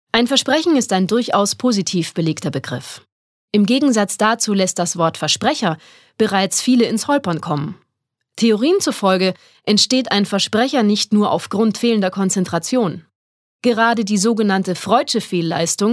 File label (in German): Versprecher Neutral